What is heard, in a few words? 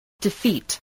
"defeat", English